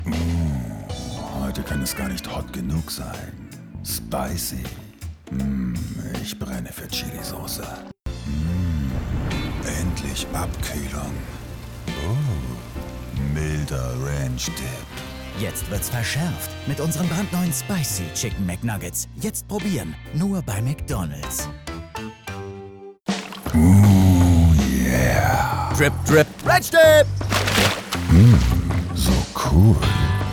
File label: spots; McDonald's